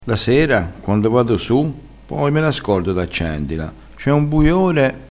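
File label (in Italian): "buiore", vocabolario dialettale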